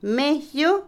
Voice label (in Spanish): riñón, Otomí, mujer; Cuerpo; INALI